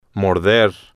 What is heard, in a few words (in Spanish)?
"morder", Spanish